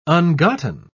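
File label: "ungotten", British English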